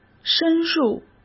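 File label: "深入", Mandarin Chinese; hsk; chinese flashcards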